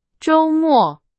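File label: Chinese, vocabulary; hsk2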